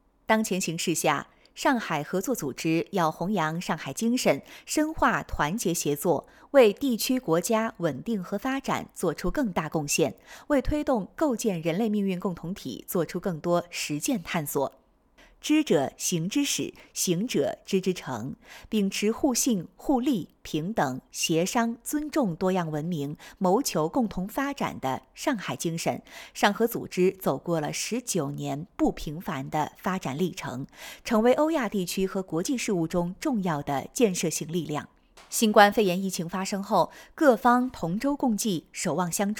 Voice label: 说新闻播报讲述流畅

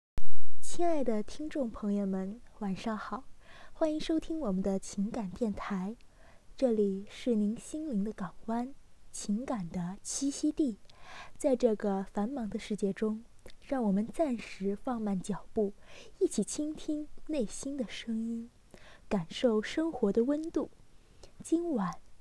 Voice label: 情感电台温婉柔和

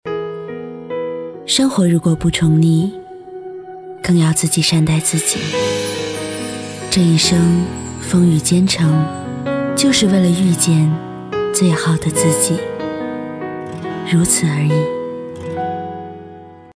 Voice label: 情感电台; 生活如果不宠你

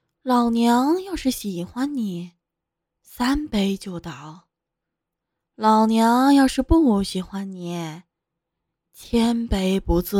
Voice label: 御姐音

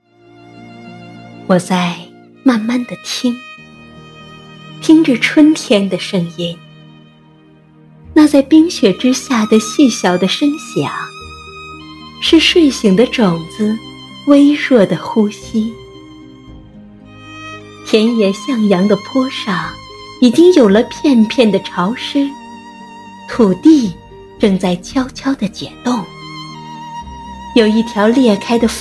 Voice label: 朗诵

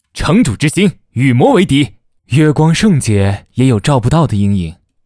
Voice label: 正义青年男声游戏角色

